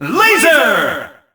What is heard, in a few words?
"lazer!" announcer; sonic; colors